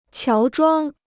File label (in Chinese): "乔装", Chinese